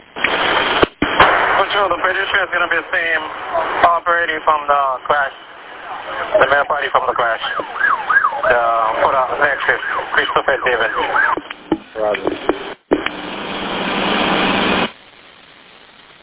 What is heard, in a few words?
Police Radio